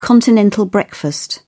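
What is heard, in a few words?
"continental breakfast", British English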